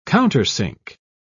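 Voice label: "countersink", British English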